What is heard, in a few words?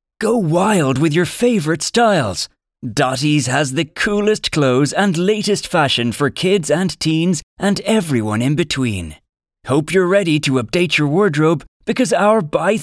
Casual Conversational